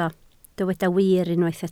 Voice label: "wir", Welsh

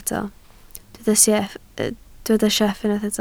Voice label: "sieff", Welsh

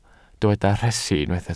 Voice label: "rhesi", Welsh

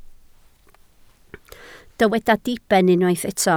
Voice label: "diben" Welsh

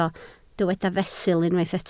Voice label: "fesul", Welsh

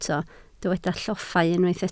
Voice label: "lloffa", Welsh

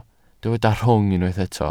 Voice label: "rhwng", Welsh

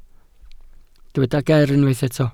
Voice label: "ger" Welsh

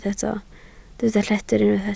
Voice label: "lledr", Welsh

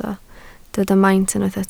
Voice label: "maint", Welsh

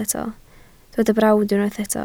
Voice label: "brawd", Welsh

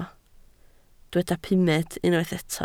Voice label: "pumed", Welsh

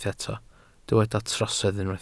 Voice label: "trosedd", Welsh

